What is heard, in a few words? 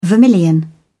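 "vermilion", British English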